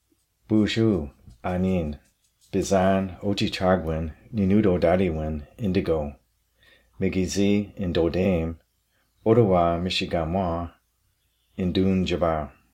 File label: My Tribal Greeting Ojibwe